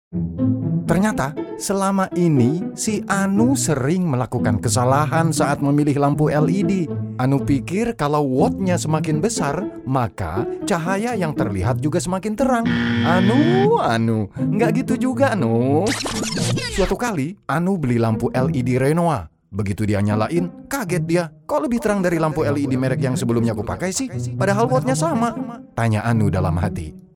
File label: Indonesian M comercial